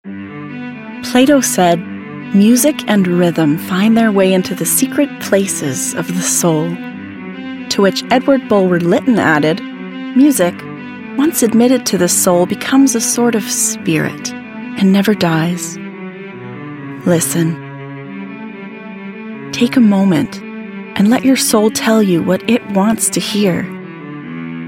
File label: Corporate